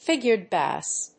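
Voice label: "Figured bass", English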